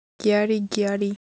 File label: Japanese Color Visual, Ideo Phones